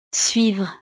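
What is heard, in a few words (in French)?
"suivre", French; Seguir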